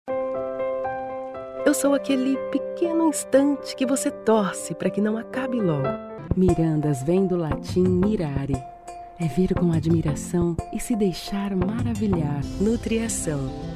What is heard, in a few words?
Portuguese Brazilian commercial